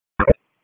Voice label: PS TAC, p25

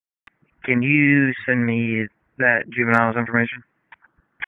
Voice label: Wake Forest Tac 3, P25 recordings

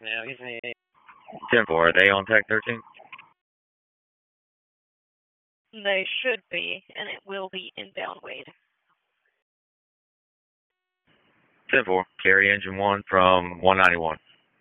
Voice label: PS TAC, p25; wake

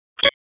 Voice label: Raleigh Police Northeast District Tac, p25; wake

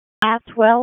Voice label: EMS ALERT

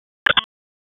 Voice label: Police North District Tac 1, p25; Raleigh

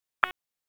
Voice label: Police Northwest District Tac 1

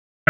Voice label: Raleigh Police Spec Ops Tac 12, P25 recordings; wake

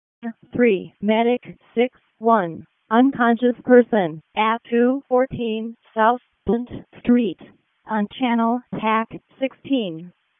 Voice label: EMS ALERT, p25; wake

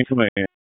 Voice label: Police Spec Ops Tac, p25; Raleigh; Tac 13

